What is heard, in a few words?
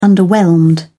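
"underwhelmed", British English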